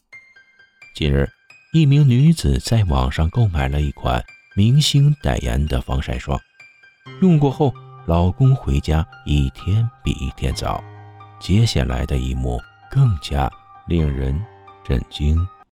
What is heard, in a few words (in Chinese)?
悬疑广告 缩混